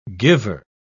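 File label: "giver", English, British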